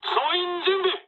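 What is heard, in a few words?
near waypoint mood low, speech japanese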